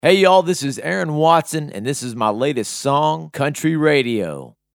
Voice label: Song Intro Country Radio; liners